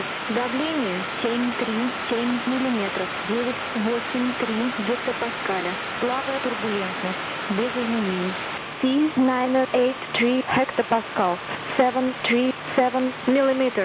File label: atis; uuee